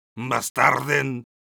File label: medic negative vocalization, es